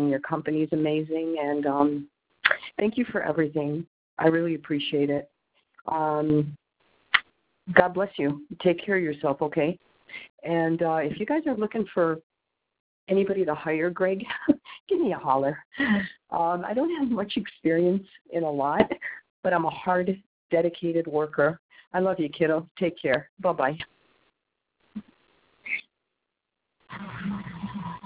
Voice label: voice message